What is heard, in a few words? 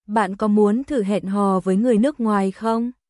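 Vietnamese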